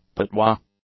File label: "patois", English